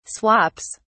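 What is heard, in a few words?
"swaps", British English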